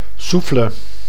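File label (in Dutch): "souffle", Dutch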